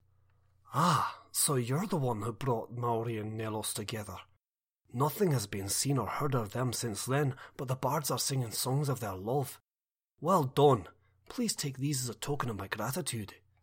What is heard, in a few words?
breton, voice auditions